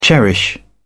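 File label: "cherish", British English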